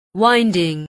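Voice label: "winding", English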